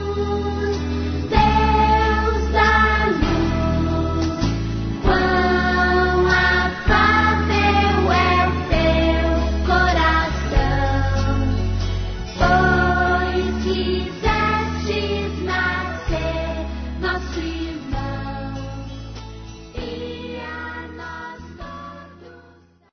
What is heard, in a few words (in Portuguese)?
Natal e Ano Novo Irmã em Cristo Masculino; Telemensagens; Misto